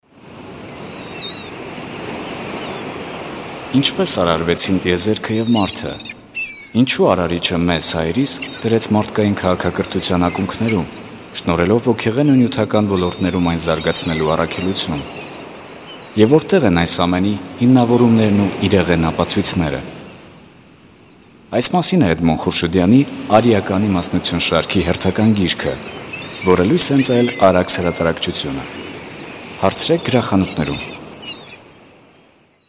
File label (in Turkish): Ermenice Erkek